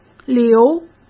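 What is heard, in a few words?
"留", Mandarin Chinese; chinese-flashcards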